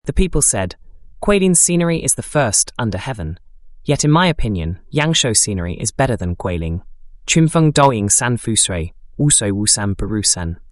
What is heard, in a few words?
Mandarin English; OpenAI